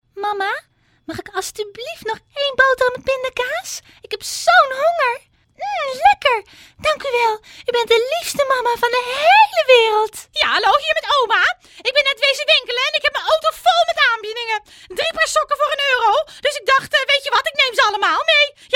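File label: Dutch, female, character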